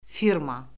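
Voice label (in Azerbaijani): "firma", Azerbaijani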